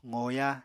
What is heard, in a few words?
"qoya", Apatani